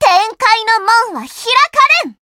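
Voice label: Voice variable chant Japanese; suzette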